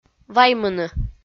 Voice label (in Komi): "ваймыны" kpv f